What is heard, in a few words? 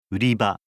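"uriba", Japanese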